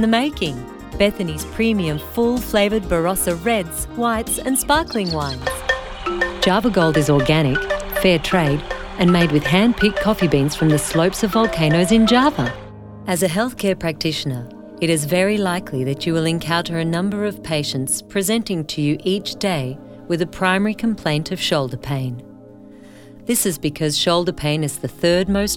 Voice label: Voice Over